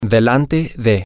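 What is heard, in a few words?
in front of, Spanish, male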